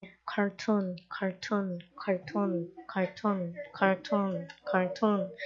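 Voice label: "cartoon", spoken word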